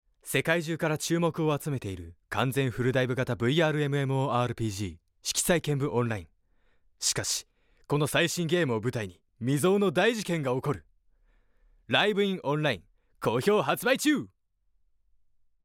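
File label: voice sample, narration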